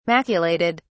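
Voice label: "maculated", British English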